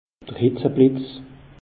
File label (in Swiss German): "hitze blitz", alsace; meteo